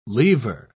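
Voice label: "leaver", British English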